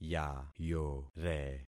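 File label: "yayore", concatenated syllables; Affixing experiment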